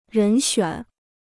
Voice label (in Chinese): "人选", Chinese